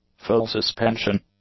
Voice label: "full-suspension", English; adjectives